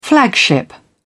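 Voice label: "flagship", British English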